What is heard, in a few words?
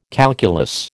"calculus", American